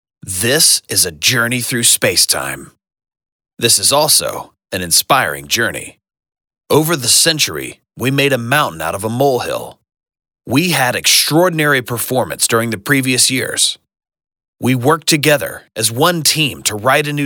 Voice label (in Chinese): 宣片配音稿年会试音